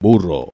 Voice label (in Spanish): "burro", es